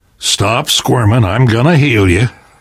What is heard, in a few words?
heal other, left4dead2 survivor voice namvet